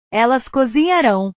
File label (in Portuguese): "elas cozinharao", portugues; portugues/verbos